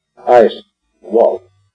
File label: ice, wo'ów = ice, Arapaho; Arapaho Language Project; RMNP